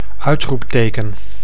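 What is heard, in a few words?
"uitoepteken", Dutch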